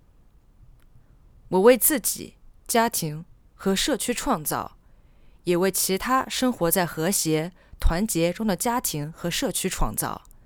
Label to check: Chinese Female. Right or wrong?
Right